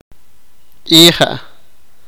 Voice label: sipakapense; semilla